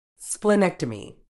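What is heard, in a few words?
"splenectomy", British English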